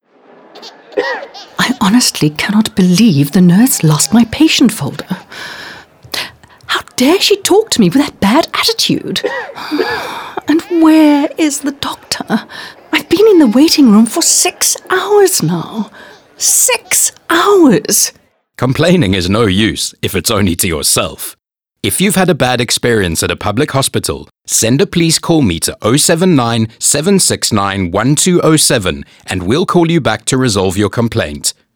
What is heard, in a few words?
radio ad english; complaints